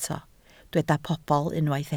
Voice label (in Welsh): "pobl", Welsh